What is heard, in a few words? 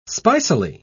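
"spicily", British English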